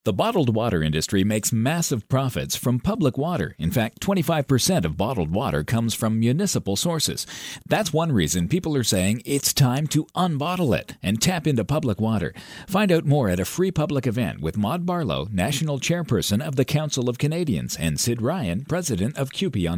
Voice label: male; brockville